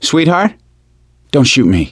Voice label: friendly fire female, voice; gambler